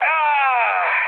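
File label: Italian damaged pilot mood low, speech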